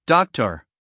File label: "doctor", US English, male; everyone-can-use-english